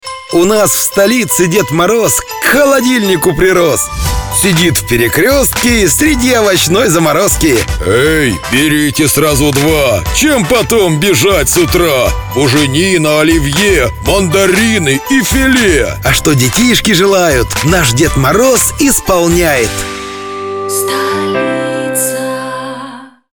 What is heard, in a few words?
commercial